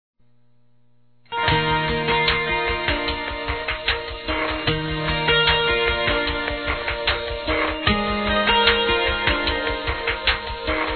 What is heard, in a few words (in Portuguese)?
Aniversário Chefe Plural Feminino, Telemensagens Empresarial; Telemensagens 2017